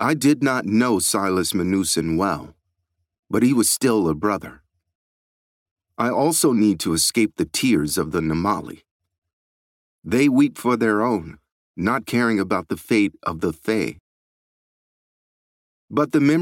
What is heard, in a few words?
voice over audiobook